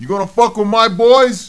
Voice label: cuss, profanity, male; kingpin